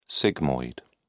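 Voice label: "sigmoid", British English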